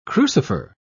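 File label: "crucifer", British English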